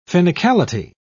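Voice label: "finicality", British English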